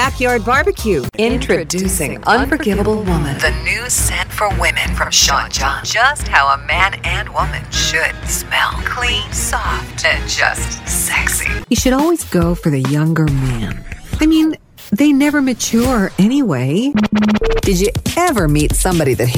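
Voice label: voice over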